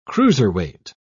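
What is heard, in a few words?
"cruiserweight", British English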